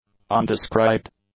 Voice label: "undescribed", English; adjectives